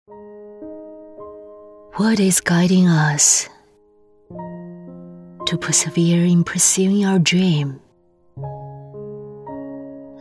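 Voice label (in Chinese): 英文城市宣传片; 长沙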